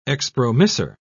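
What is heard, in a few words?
"expromissor", British English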